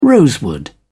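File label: "rosewood", British English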